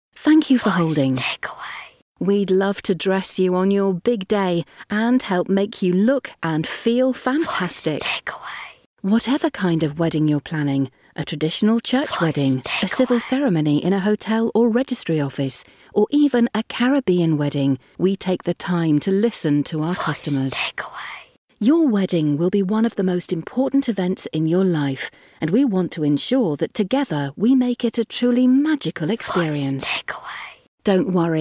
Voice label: Bridalwear Smooth Female

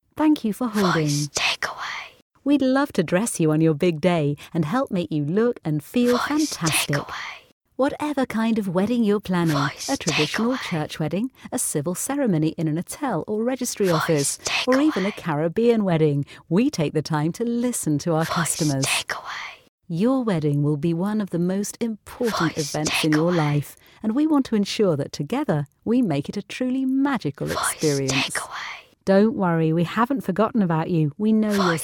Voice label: Rich Female

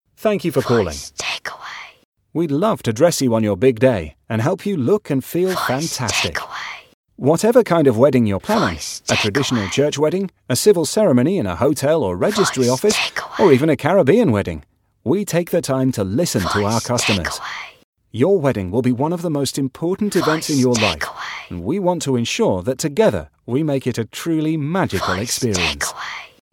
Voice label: Bridalwear Smooth Male

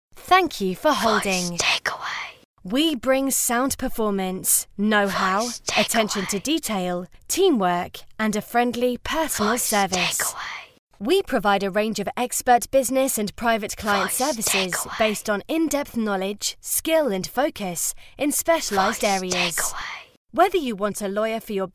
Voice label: Solicitors Fresh Female

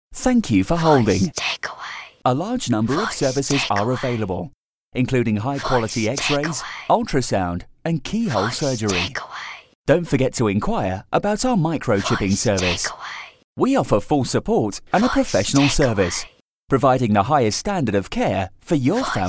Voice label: Vets Fresh Male

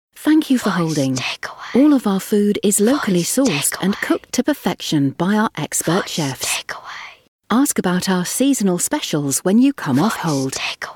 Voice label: Restaurants Smooth Female

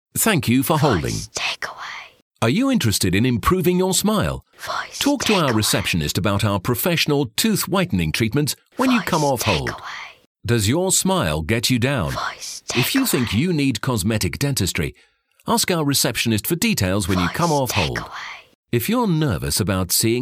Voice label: Dentists Rich Male

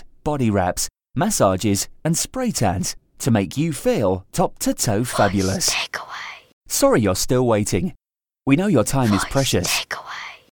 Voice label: Beauty Salons Fresh Male

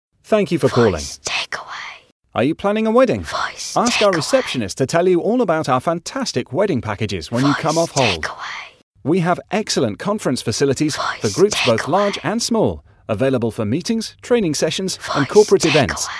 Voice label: Hotels Smooth Male

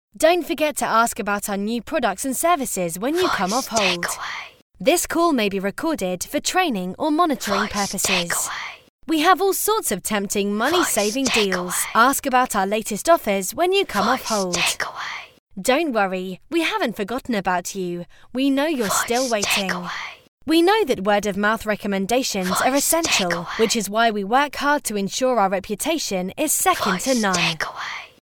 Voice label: Startups Fresh Female

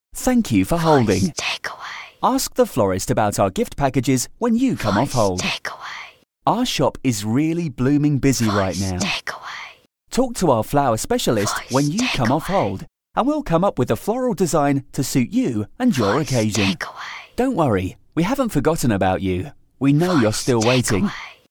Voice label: Florists Fresh Male

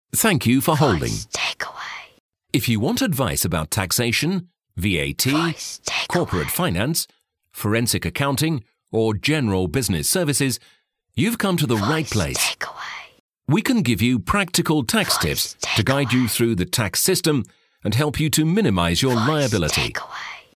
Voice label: Accountants Rich Male

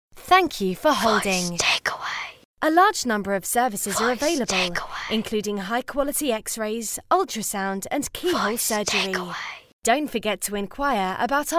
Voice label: Vets Fresh Female

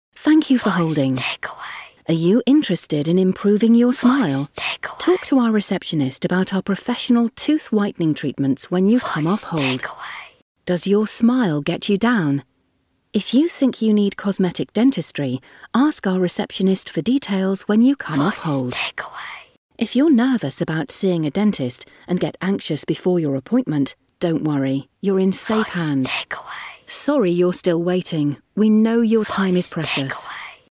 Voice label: Dentists Smooth Female

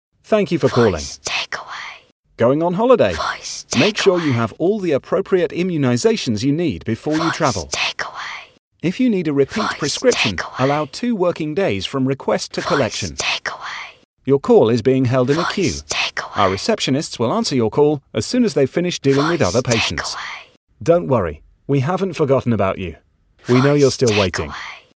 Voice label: Doctors Smooth Male

